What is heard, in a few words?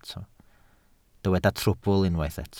"trwbl", Welsh